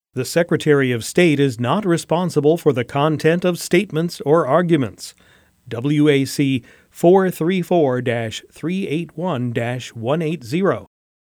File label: Statement & Argument Disclaimer